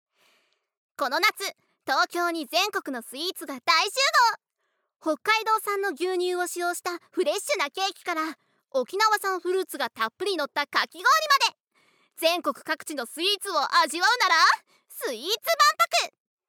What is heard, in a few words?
Narration; ナレーション2